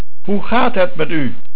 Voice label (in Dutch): "Hoe", Dutch